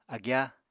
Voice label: "agyá", Apatani

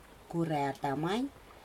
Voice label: dog male, Aneityum

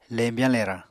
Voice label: "lembyañ-lerañ", Apatani

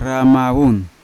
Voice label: "ramavun" buy, Yawa

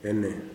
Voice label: "you", kuman

